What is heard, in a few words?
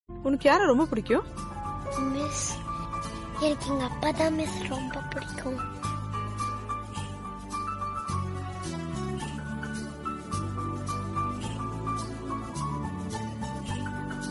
Dialogue Tamil; Appa Ponnu